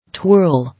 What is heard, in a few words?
"Twirl", English